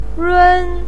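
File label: "run", Mandarin Chinese syllable, first tone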